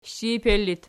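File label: shiper locative, Archi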